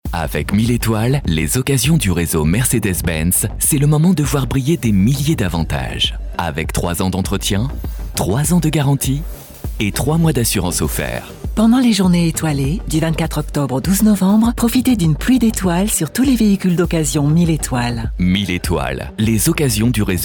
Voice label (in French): warm elegant, french voice over